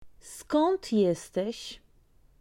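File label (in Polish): "skad jestes", polaco; Vocabulario: frases y palabras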